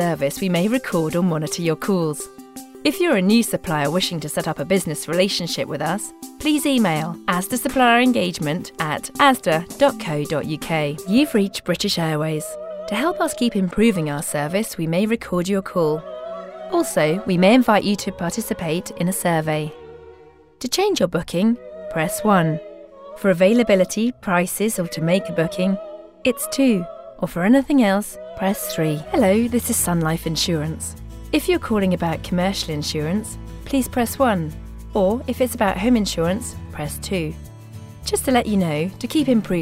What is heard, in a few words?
Phone